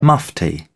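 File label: "mufti", British English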